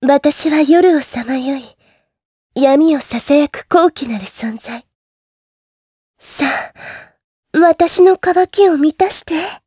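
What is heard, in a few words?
vox, voices, Japanese; Erze; kingsraid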